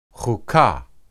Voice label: "huqah", Hebrew